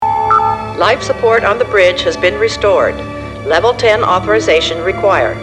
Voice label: life support restored level ten authorization required, computer voice